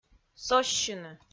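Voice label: "соссьыны", Komi, female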